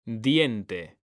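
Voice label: "diente", Spanish, male; mekanta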